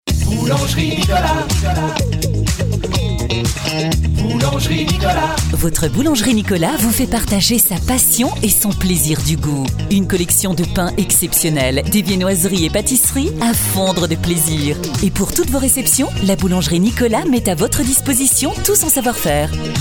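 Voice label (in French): spot, spots radio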